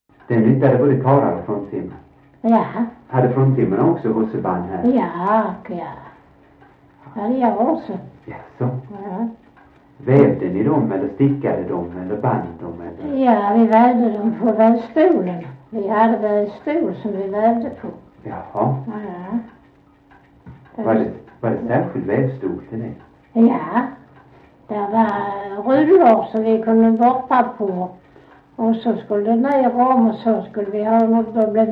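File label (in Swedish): Sövde Skåne, Svenska sagor dialekter; Folkminnen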